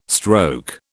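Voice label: "stroke", British English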